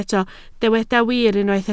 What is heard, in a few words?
"wir", Welsh